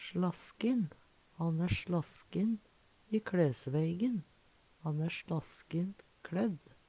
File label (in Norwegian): slaskin, Numedalsmål; Han æ sLaskin i kLæsveigen. Han æ sLaskint kLædd.; Adjektiv